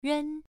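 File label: "ren", tone 1, pronunciation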